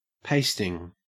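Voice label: "pasting", Australian English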